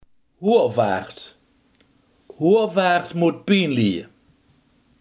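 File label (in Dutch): hoogmoed, Limburgse gesproken woordenboeken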